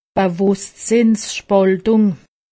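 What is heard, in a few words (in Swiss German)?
"schizo", Haut Rhin; medecine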